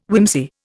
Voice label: "whimsy", Indian